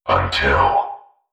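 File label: "until", synthesized voice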